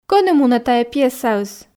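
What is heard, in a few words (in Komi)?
кӧні мунӧ тайӧ пьесаыс, Komi, female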